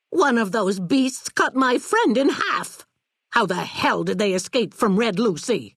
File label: female old greeting; Fnv